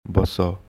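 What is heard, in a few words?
"Basa" Sambalpuri; Sit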